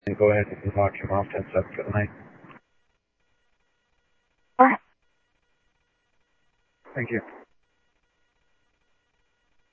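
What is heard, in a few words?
Sheriff East County, scanner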